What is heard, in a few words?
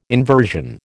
"inversion", American